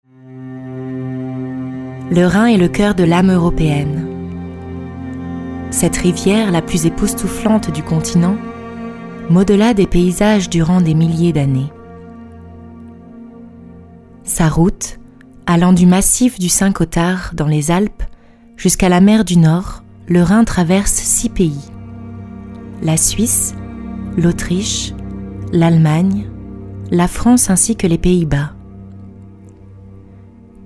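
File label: French voice-over, voicesamples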